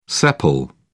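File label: "sepal", British English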